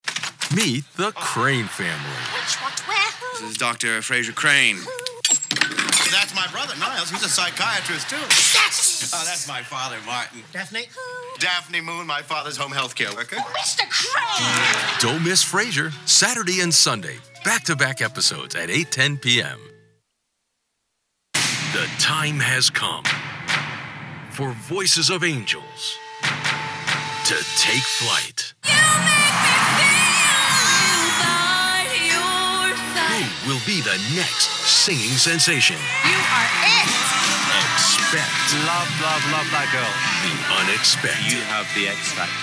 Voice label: male English USA promo; voices